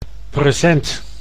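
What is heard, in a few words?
"present", Dutch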